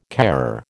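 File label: "carer", American English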